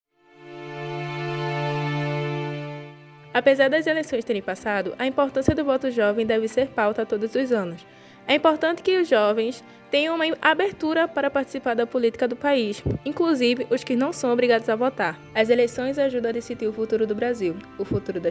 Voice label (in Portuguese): Spot